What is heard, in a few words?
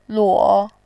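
"luo", Mandarin, tone 3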